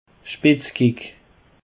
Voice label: "spetzkick", Alsace

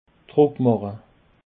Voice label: "druckmache", Alsace

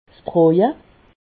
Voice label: "broja", alsace

